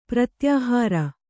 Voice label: "ಪ್ರತ್ಯಾಹಾರ", Kannada; pratyāhāra; noun

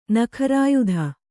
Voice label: "ನಖರಾಯುಧ", Kannada; nakharāyudha; noun

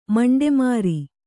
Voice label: "ಮಂಡೆಮಾರಿ", Kannada

